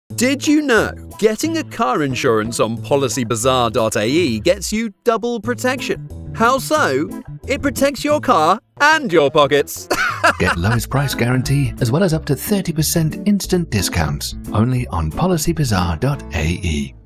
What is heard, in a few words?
ENG MVO, radio spot; PB Car spot